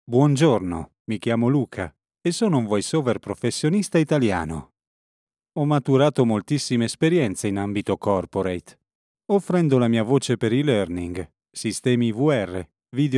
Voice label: ITA IVR COMPANY SERVICES